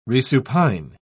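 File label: "resupine", English, British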